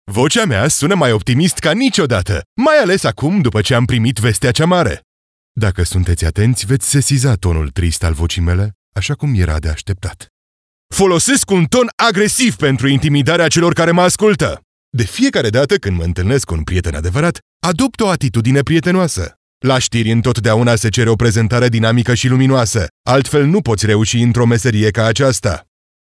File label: Romanian male